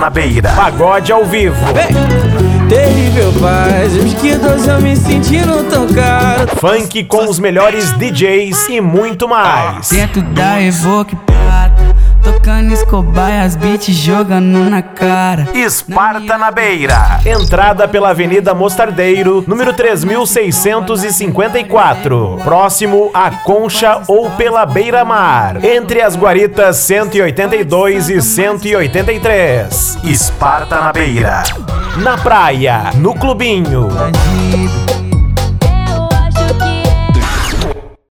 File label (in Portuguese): Locutor Chamada Festa; Sparta Na Beira; Cidreira RS